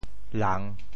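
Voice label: "lang3", Teochew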